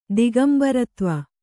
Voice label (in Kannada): "ದಿಗಂಬರತ್ವ", Kannada